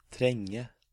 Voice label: "Trenge", Norwegian

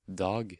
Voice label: "Dag", Norwegian

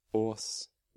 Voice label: "Ås", Norwegian

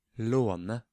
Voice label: "Låne", Norwegian

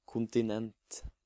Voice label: "Kontinent", Norwegian

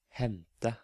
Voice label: "Hente", Norwegian

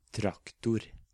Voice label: "Traktor", Norwegian

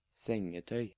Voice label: "Sengetøy", Norwegian

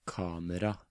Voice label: "Kamera", Norwegian

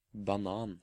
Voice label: "Banan", Norwegian

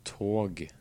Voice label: "Tog", Norwegian

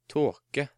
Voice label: "Tåke", Norwegian

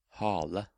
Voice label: "Hale", Norwegian

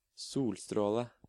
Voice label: "Solstråle", Norwegian

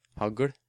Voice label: "Hagl", Norwegian

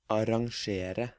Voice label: "Arrangere", Norwegian

